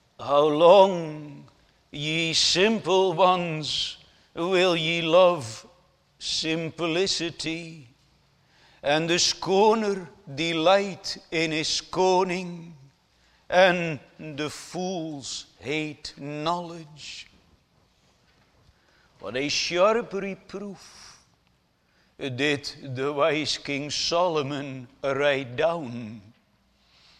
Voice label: Sermon; pentecost